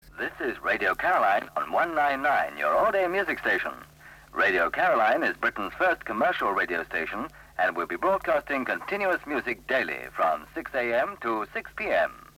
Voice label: ID announcement; Radio Caroline 1964